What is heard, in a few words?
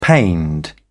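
"pained", British English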